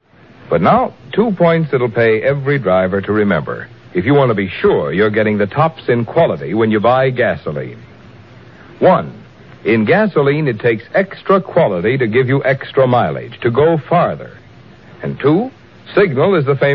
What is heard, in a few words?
Vintage Commercials; Signal Gasoline